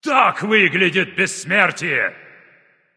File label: immortal, Announcer Killstreak, Russian